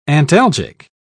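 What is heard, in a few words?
"antalgic", British English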